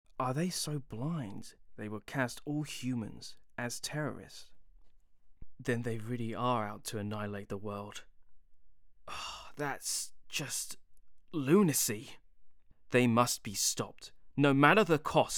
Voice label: voice reel, enemy to ally character